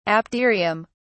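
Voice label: "apterium", British English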